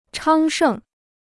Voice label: "昌盛", Chinese

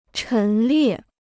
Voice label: "陈列", Chinese

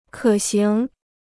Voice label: "可行", Chinese

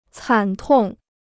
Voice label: "惨痛", Chinese

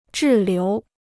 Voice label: "滞留", Chinese

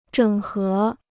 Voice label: "整合", Chinese

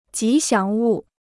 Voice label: "吉祥物", Chinese

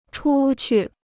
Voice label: "出去", Chinese

